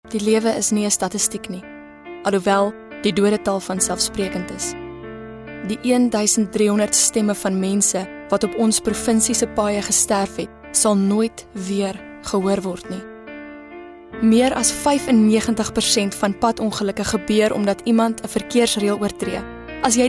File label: Natural Afr